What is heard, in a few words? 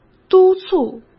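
"督促", Mandarin Chinese; chinese-flashcards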